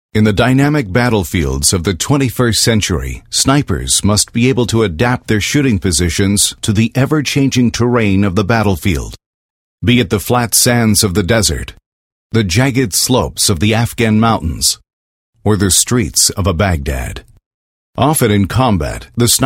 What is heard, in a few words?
US documentary